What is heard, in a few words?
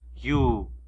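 "dirt", Tlacolula Zapotec; yu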